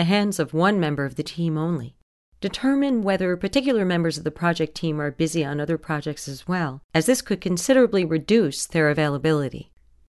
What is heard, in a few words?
E-Learning Risk